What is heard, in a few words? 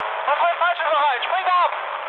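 German bailout mood high, speech; War Thunder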